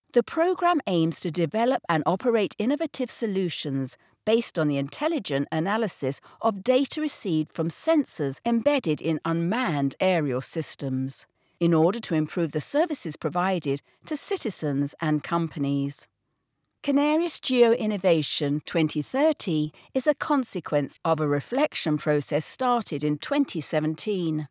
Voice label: english british corporate videos

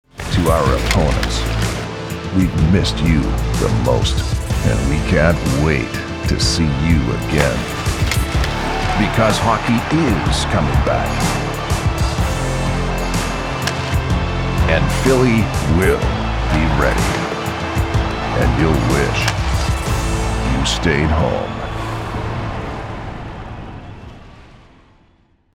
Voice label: english american podcasts; Profundo e autoritário com clareza elegante e calor

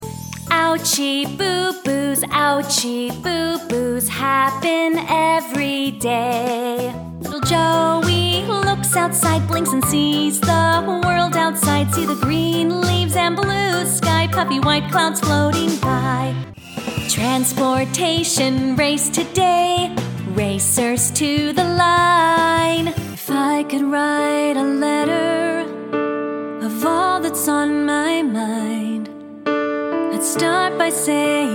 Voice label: english american; Franklin, TN

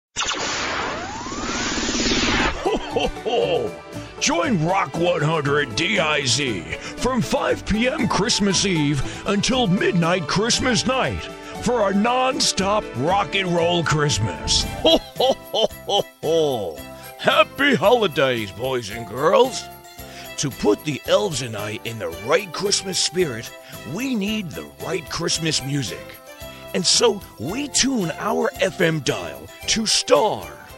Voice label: english american impersonations, voice actors

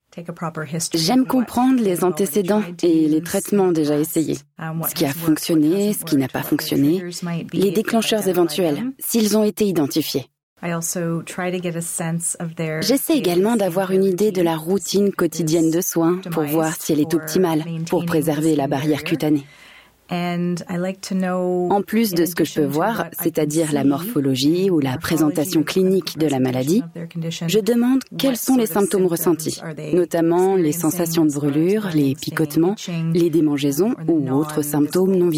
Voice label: french podcasts

